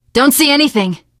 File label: area clear, teen girl; survivor voice